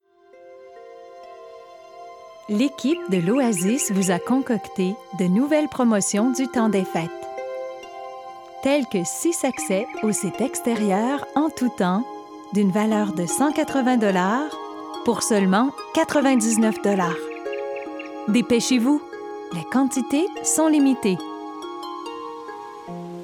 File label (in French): Message Telephonique; Oasis de l’île - Mise en attente